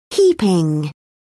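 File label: "heaping", British English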